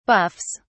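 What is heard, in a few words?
"buffs", British English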